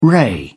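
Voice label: "ray", British English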